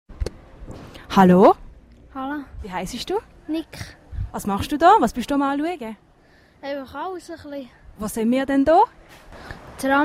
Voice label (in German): Interview Ankunft Junge